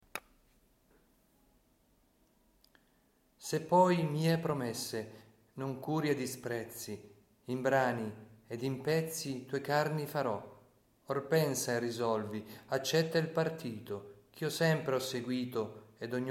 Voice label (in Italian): recitato Inno a S. Primiano Martire Lesina